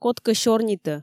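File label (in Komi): "кодкӧ сёрнитӧ", Komi, female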